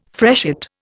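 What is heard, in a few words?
"freshet", Indian English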